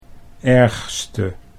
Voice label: "ergste", Dutch